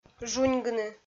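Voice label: "жуньгыны", Komi, female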